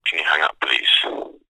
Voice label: human male english says can you hang up please telephone reciever